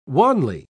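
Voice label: "wanly", English, British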